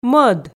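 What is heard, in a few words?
"мӧд", Komi, female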